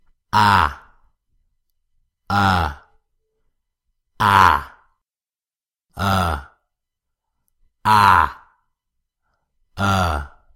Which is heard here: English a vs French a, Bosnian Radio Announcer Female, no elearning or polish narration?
English a vs French a